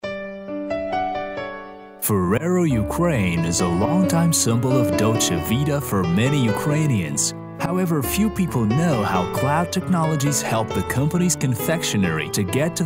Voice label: corporate